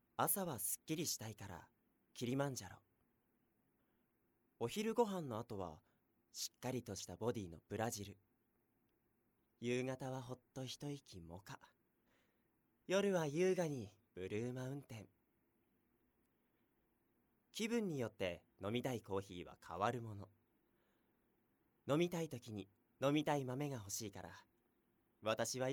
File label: narration